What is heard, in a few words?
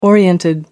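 "oriented", British English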